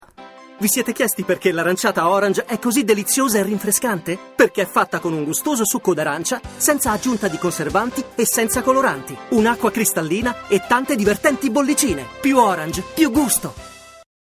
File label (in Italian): Commerciale